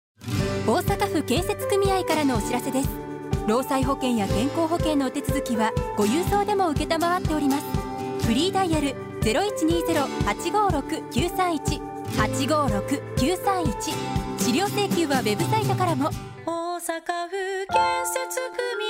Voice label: radio; osaka